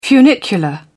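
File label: "funicular", British English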